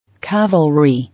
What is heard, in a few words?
"Cavalry", English